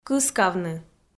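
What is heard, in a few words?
"кыскавны", Komi, female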